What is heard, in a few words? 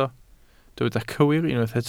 "cywir", Welsh